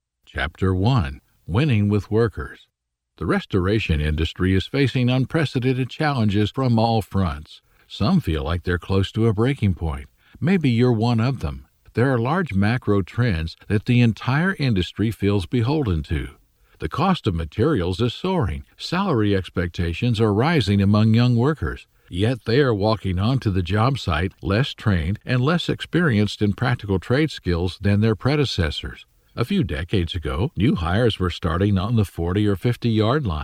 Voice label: Audiobook Business